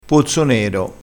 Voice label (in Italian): "pozzo nero", crespinese